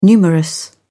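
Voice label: "numerous", British English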